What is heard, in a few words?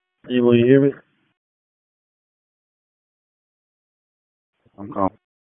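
Public Safety Tactical, p25; Wake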